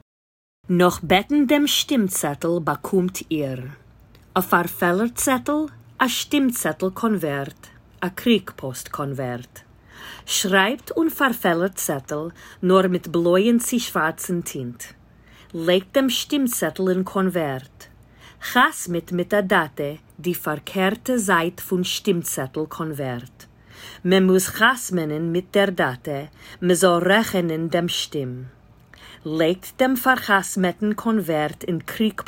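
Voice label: Yiddish, voice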